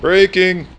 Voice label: BRAKING, COMPUTER, VOICES; Messiah

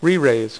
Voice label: "RERAISE", Dealer's Speech; PowerPOKER Demo

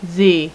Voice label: "z" female, voice alphabet; Web Clip Empire 150,000

